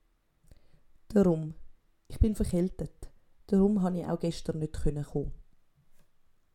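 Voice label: "durum", Swiss German vocabulary; Kapitel 2 Zusatzwortschatz 1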